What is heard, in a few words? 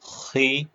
short voyels arabic alphabet